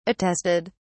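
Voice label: "attested", English, British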